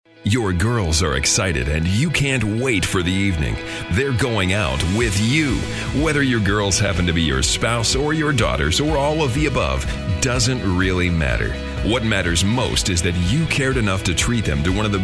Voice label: Commercial Serious; Big Time Productions